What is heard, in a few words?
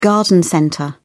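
"garden centre", British English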